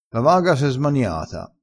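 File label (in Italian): "smaniassi", vocabolario dialettale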